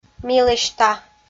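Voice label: "милӧсьта" Komi, female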